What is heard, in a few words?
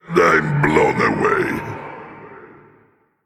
Male Elemental Emote Oops